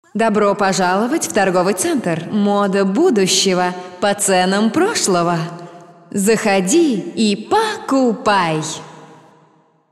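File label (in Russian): reklama, advertisement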